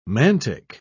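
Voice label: "mantic", English, British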